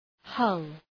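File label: "hull", English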